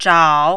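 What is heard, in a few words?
"zhao3", mandarin, dipping tone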